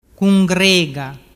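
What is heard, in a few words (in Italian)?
"cungrega", Vernazzese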